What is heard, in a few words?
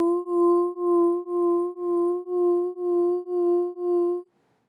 vibrato tremolo VOX